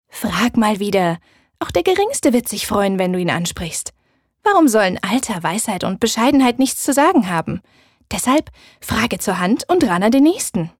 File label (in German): Werbung Niedlich